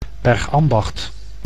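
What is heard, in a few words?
"Bergambacht", Dutch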